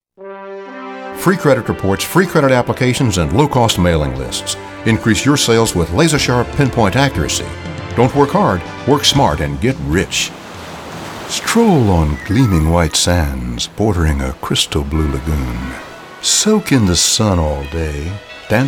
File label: voiceover commercial